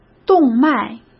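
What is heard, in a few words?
"动脉", Mandarin Chinese; hsk; chinese flashcards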